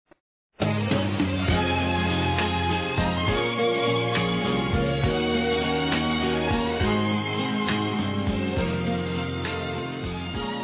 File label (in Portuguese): OBRIGADO POR SER MINHA MÃE, Telemensagens Dia das Mães, Masc; Telemensagens 2017